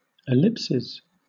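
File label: "ellipses", British English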